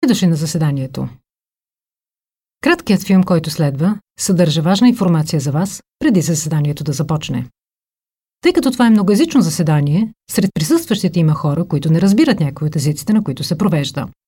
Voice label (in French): bulgare